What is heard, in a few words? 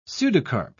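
"pseudocarp", British English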